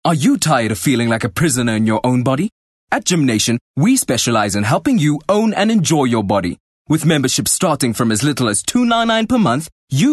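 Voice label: English Hardsell South African Neutral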